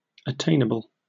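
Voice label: "attainable" English, British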